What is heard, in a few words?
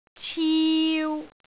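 "ciu3", Cantonese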